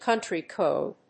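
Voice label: "country-code", English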